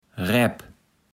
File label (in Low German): "Räp", Platt